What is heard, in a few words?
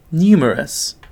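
"numerous", British English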